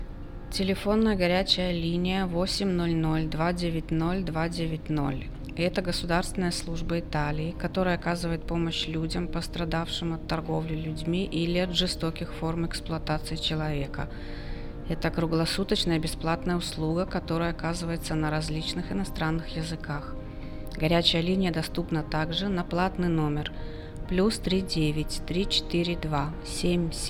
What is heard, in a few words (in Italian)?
Registrazione Russo